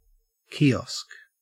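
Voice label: "kiosk", Australian English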